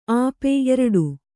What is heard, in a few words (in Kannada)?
"ಆಪೆ", kannada